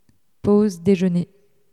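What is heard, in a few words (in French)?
"pause déjeuner" French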